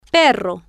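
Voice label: "perro", Spanish, female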